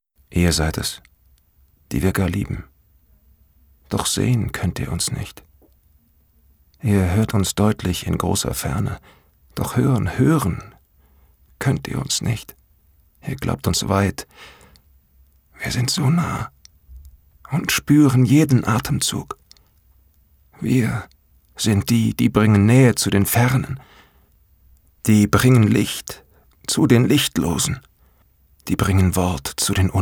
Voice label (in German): traurig, maenner